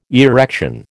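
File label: "erection", American English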